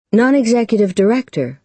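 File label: "non-executive director", American English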